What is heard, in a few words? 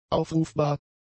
"aufrufbar", German; adjectives